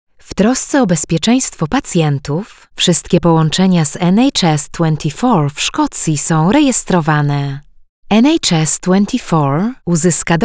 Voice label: Female Polish Voice IVR; NHS